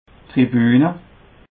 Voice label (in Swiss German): "tribune", Alsace